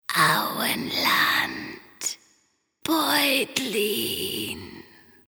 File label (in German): sprecherdemo charge ringgeist